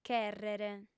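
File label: "chèrrere", female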